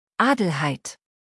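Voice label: "Adelheid", German; maedchennamen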